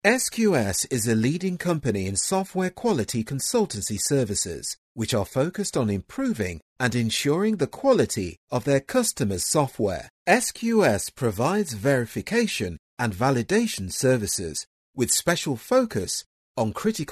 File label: british corporate; BRITISH » Clear, precise, and engaging.